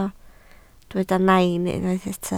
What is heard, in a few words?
"nain", Welsh